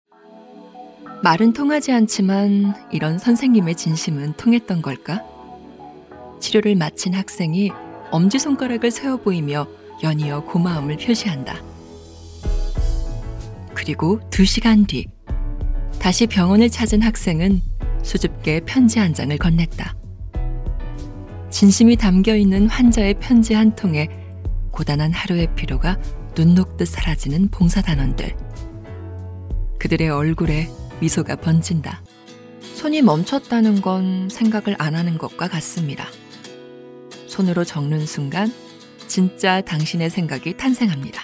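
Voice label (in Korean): 남성 (Male) 광고 나레이션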